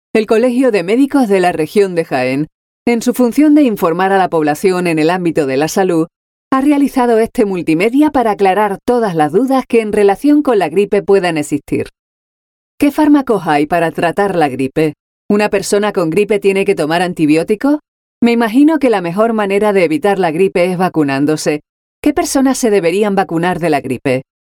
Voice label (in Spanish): Andaluz Voz femenina media